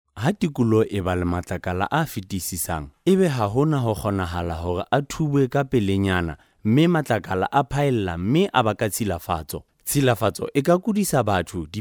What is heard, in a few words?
male sesotho voice over talent narrative